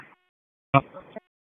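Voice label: Raleigh Police Southeast District Dispatch; wake p25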